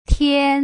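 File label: "tian", Putonghua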